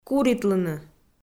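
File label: "куритлыны", Komi, female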